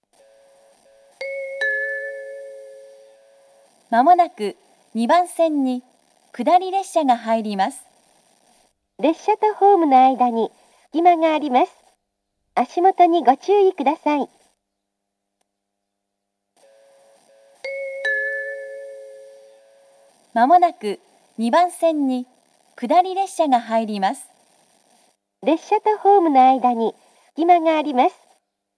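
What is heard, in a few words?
platform 2 up-bound approaching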